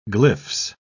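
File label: "glyphs", English, British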